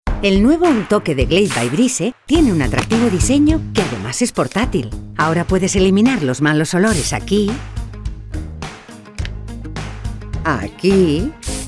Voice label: female spanish spray comercial; ideasonora

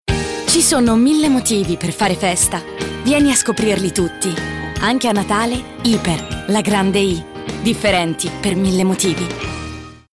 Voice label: female italian comercial

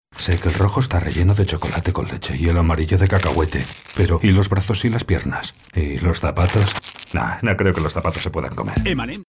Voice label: male spanish chocolat comercial; ideasonora

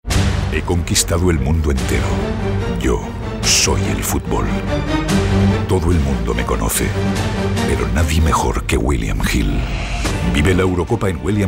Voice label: male spanish football comercial; ideasonora

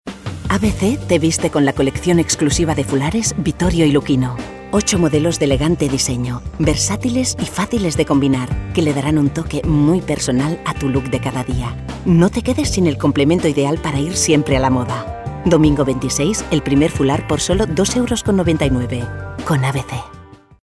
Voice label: female spanish wear comercial; ideasonora

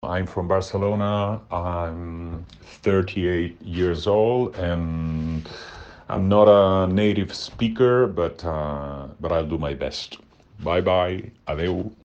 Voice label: male non native english presentation

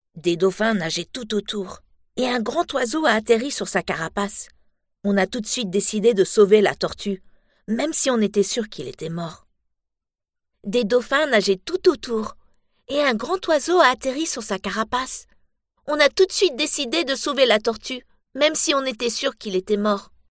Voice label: female french kids comercial; ideasonora

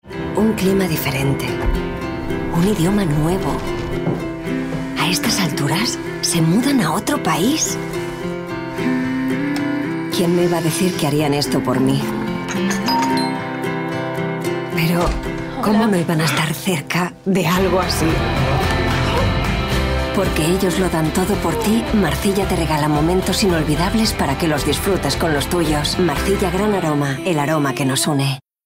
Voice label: female spanish coffee comercial; ideasonora

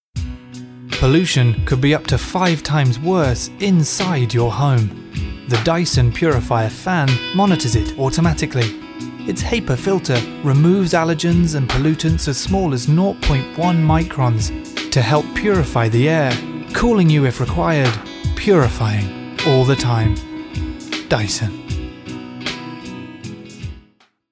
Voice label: male british english air comercial

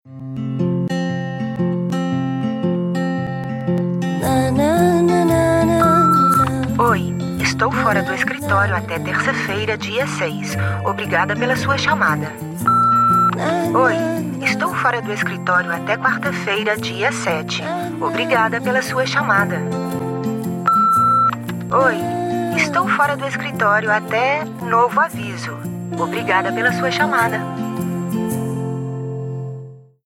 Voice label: female brazilian comercial; ideasonora

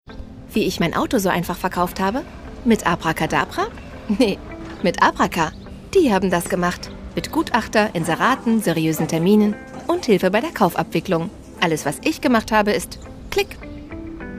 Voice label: female german commercial; ideasonora

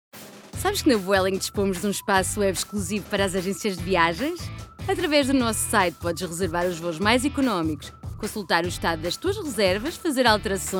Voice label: female portuguese comercial

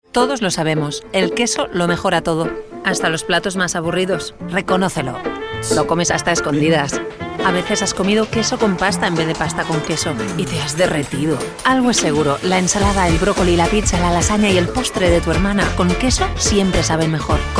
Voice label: female spanish food cheese comercial; ideasonora

